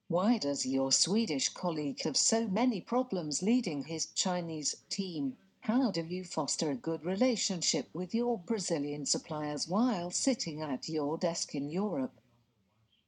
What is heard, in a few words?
UK Female